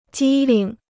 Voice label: "机灵", Chinese